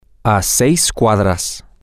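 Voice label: six blocks away, Spanish, male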